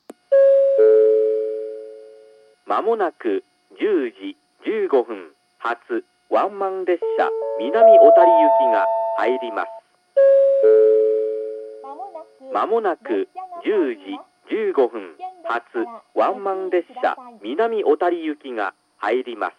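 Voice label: platform 1 approaching advance notice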